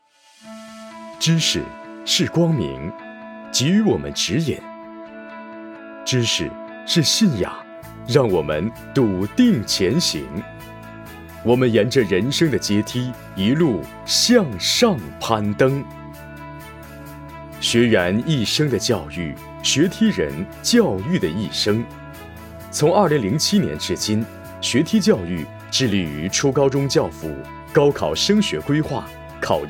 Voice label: 教育宣传 试音 男; 学梯教育

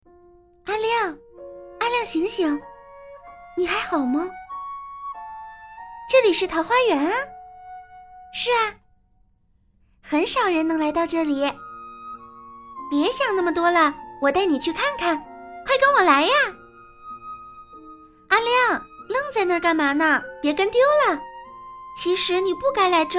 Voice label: 女童12岁少女

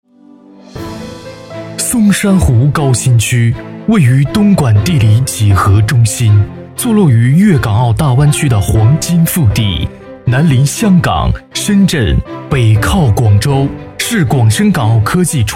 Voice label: 工业专题 沉稳坚定; 高新产业区

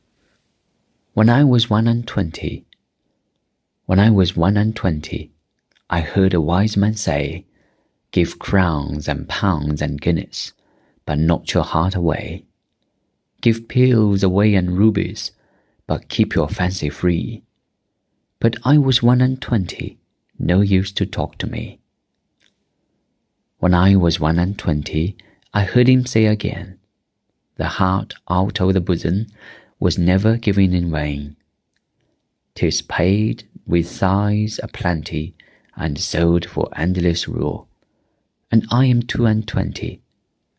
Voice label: 英文; 英文 讲故事配音

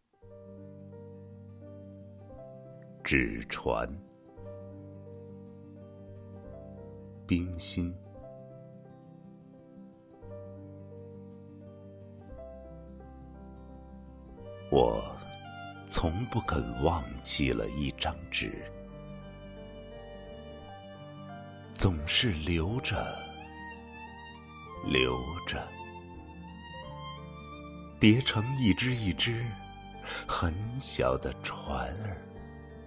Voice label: 低沉

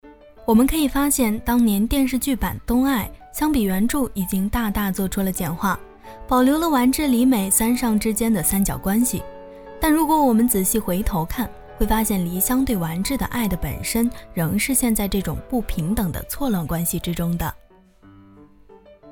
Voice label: 解说风格

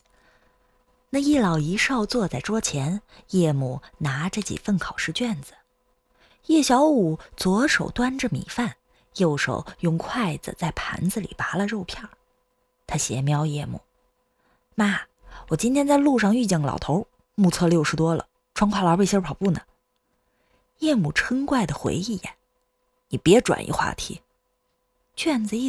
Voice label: 考试母子对话

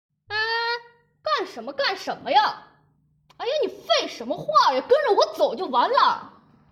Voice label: 霸气女孩

